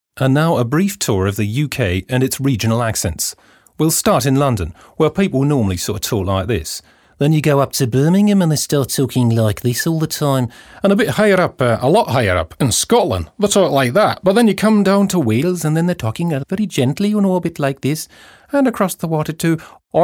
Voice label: UK Regional Accents